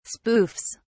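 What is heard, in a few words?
"spoofs", British English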